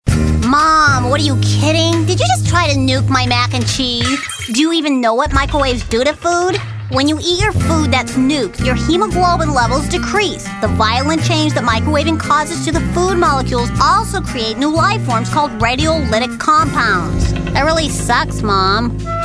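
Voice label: character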